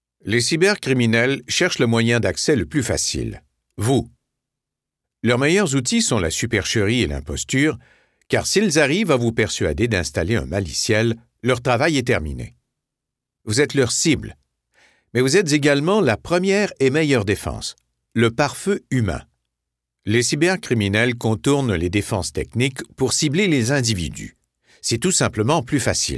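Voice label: French Canadian, eLearning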